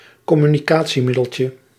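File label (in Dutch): "communicatiemiddeltje", Dutch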